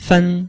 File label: "fen1", chinois